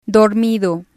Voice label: "dormido", spanish